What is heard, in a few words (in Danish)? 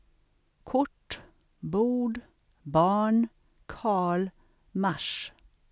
svensk kort bord; kʰɵʈ: bu:ɖ bɑ:ɳ kʰɑ:ɭ maʂ